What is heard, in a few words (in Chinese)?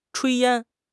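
"炊烟", Mandarin Chinese; HSK6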